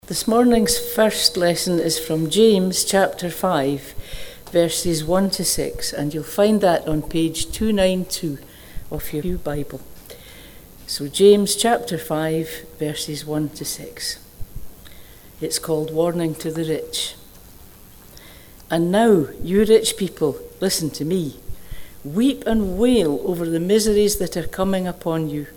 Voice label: read; James 5: 1-6; harvest